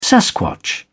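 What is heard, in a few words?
"sasquatch", British English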